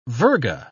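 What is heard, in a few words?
"virga", English, British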